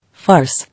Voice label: "Farce", English